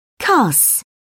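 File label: "cuss", British English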